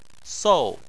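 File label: "sou", Chinese, fourth tone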